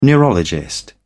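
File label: "neurologist", British English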